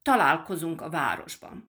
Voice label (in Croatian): mađarski; POP UP Zagreb